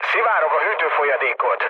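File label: Hungarian damaged water mood low, speech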